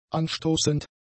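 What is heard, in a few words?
"anstoßend", German; adjectives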